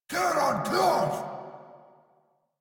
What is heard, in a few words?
Deploy Drone, en; Dialog; Barks; Battle; Warframe; Eidolon; Grineer